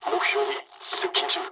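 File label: near waypoint mood med, speech japanese; War Thunder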